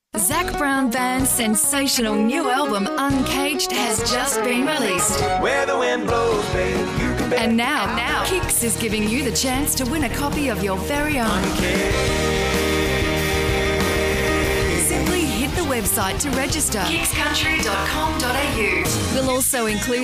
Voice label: radio music promo; WJR

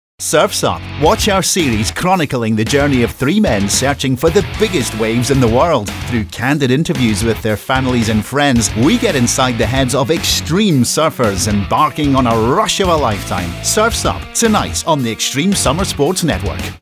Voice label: Surfs Up Promo

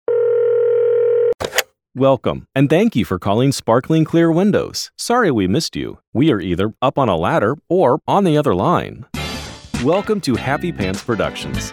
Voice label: Telephony IVR

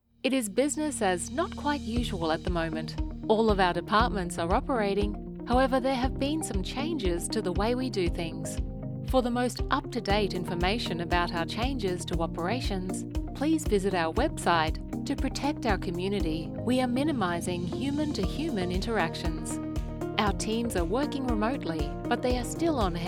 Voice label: IVR sample

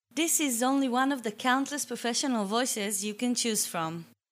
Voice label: Voice over English

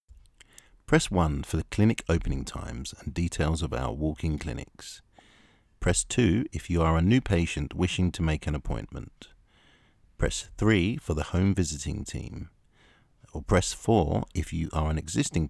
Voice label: Menu IVR

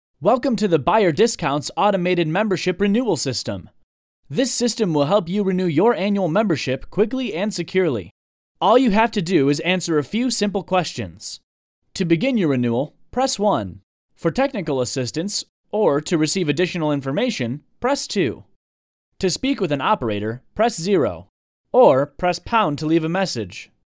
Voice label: phone

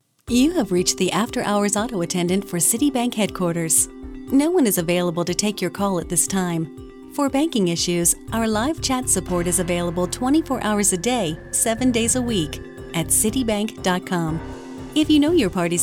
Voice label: TELEPHONY

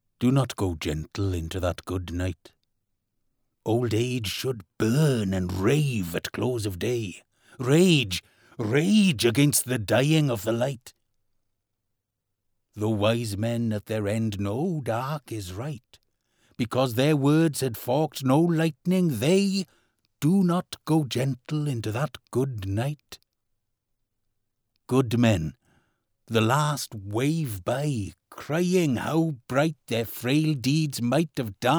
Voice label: Studio Test RAW

